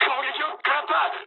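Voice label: aircraft damaged mood low, speech japanese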